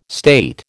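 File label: "state", American English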